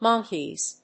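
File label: "monkeys", English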